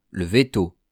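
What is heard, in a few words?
"le veto", French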